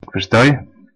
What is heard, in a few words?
understand, West Flemish